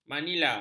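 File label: "manila", Malay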